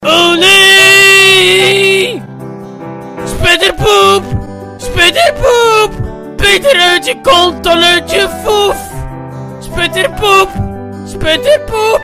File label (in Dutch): spetter poep lied voice over